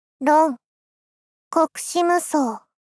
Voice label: ロン。国士無双 妹系×ダウナー×中音域; ロン。国士無双（コクシムソウ）

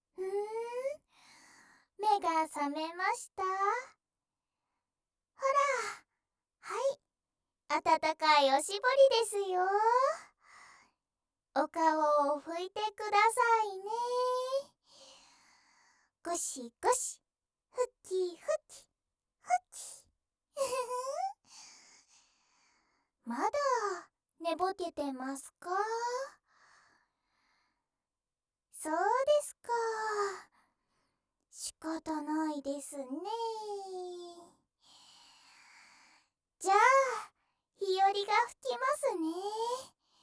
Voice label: 目覚ましおしぼり, ASMR

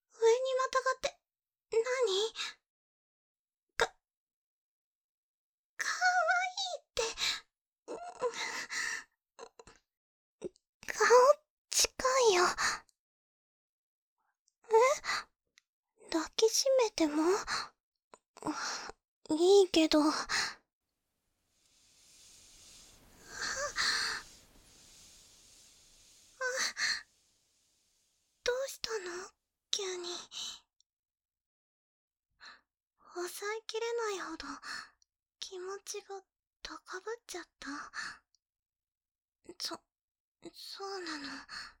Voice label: 添い寝BGMなし

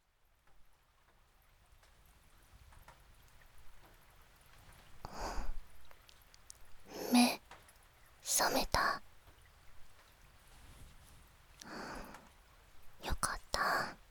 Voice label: 雨降りバージョン, ASMR; 田舎の別の無感情少女と自己犠牲愛2

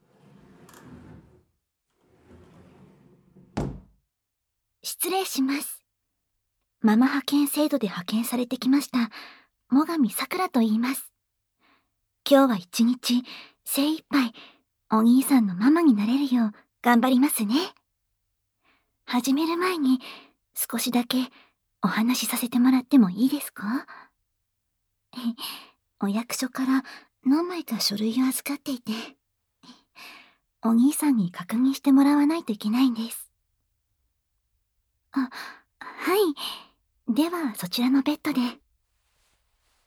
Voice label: 膝枕耳かき, ASMR; JKママ咲良の膝枕耳かき♪

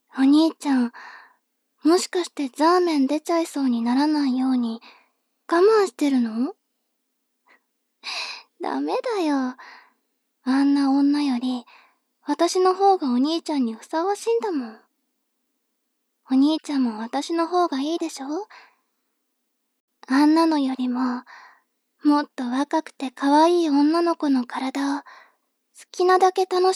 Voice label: 妹 台詞

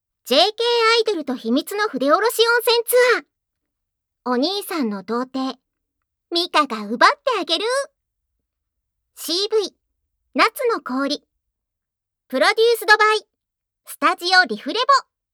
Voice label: タイトルコール, ASMR

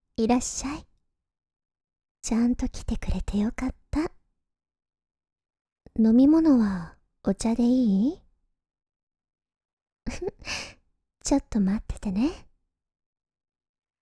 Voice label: 癒しの耳かき 耳舐め SEなし; 手コキ太ももコキ

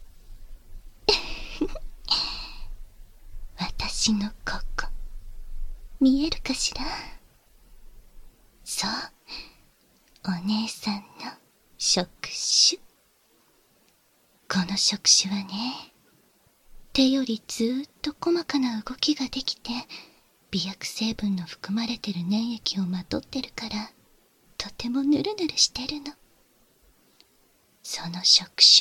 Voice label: 耳を触手で攻められる（簡易モノラル編集 効果音なし）

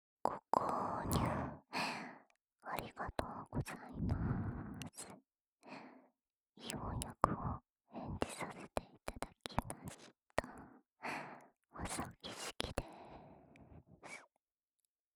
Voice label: フリートーク, ASMR

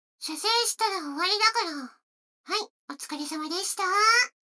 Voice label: 女の子台詞

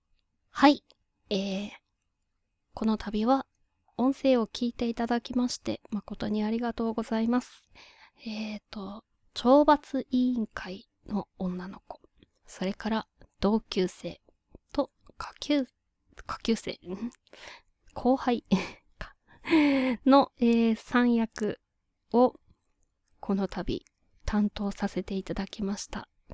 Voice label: フリートーク

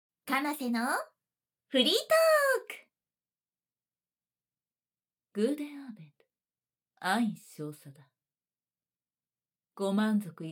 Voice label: フリートーク, ASMR

